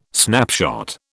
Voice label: "snapshot", British